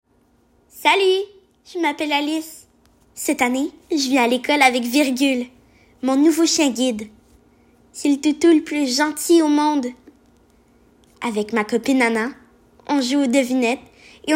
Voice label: narration